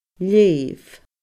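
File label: "leugh", Scottish Gaelic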